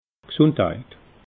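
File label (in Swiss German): "gsundheit", Alsatian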